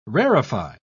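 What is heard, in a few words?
"rarify", English, British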